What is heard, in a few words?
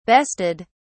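"bested", British English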